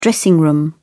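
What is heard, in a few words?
"dressing room", British English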